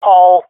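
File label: swat female; loc hole; Counter Strike; CS:GO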